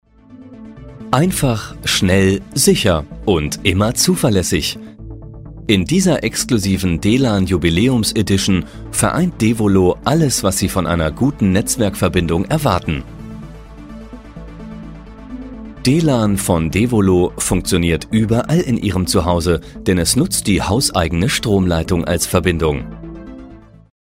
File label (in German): Neutral